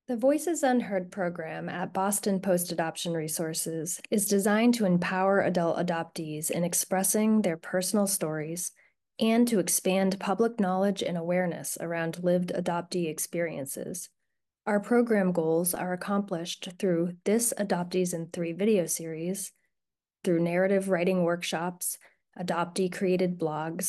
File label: VO No music